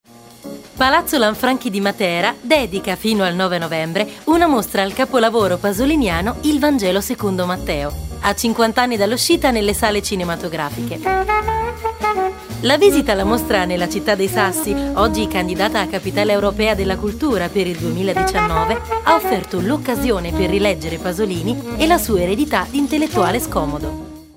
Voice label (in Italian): Spot